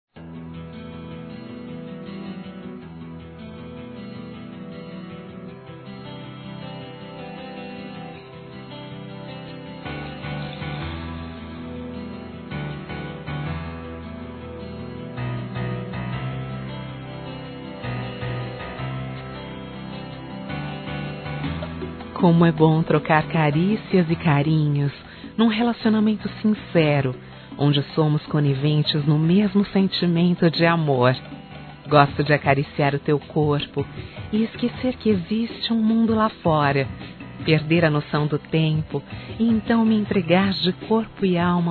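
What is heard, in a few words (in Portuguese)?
ROMANTICA SENSUAL, Feminino; Telemensagens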